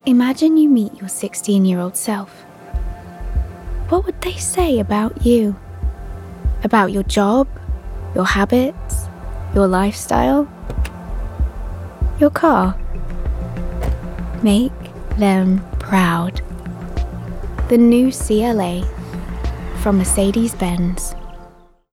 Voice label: RP (Received Pronunciation), Commercial, Cool, Smooth, Confident, Light; Mercedes